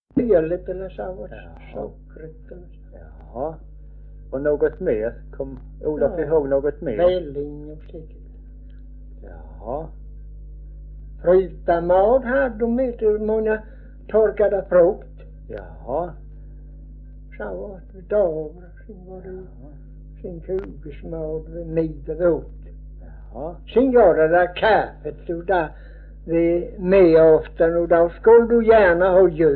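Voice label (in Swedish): Örkened Skåne, Svenska sagor dialekter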